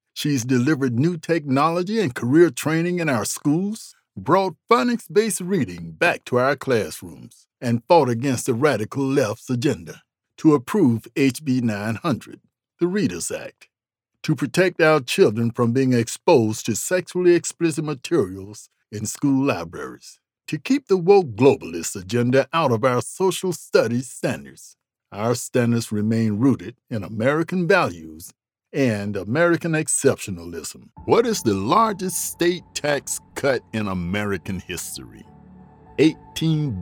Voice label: POLITICAL MULTIPLE CAMPAIGN READS; POLITICAL DEMOS